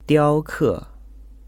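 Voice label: "diaoke", Chinese; ethnic minorities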